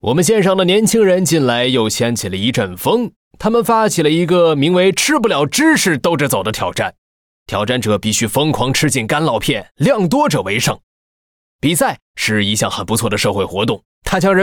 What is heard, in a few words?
Mandarin; 瑞奇（双点校园）